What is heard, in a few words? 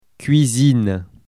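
"cuisine", French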